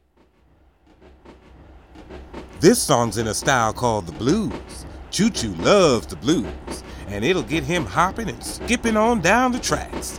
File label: Narration